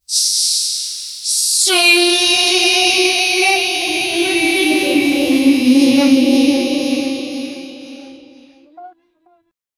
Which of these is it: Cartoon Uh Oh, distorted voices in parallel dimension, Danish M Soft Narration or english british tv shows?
distorted voices in parallel dimension